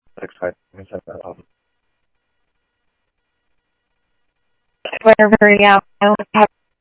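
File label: Sheriff East County, scanner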